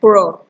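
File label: "kpro", Nigerian Pidgin, female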